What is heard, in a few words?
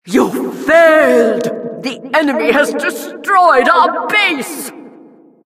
announcer rocket launch